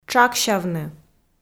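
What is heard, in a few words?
"тшаксявны", Komi, female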